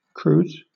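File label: "cruise", British English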